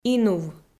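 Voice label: "инув", Komi, female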